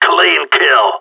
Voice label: good shooting, radio; GSG9

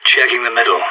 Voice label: checking middle, radio; SAS

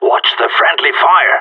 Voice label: friendly fire, radio; GSG9

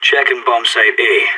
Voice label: check, radio; SAS

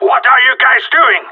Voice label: friendly killed, radio; GSG9

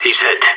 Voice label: enemy down, radio; SAS

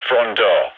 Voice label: front door, radio; GIGN

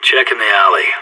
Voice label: checking alley, radio; SAS

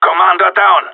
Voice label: commander down, radio; GSG9

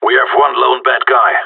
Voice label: one enemy left, radio; GSG9

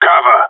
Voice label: cover me, radio; GSG9

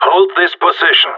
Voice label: hold here, radio; GSG9

